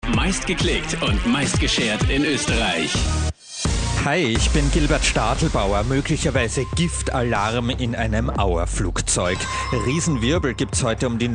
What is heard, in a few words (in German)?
Interview; Kronehit; Öldämpfe AUA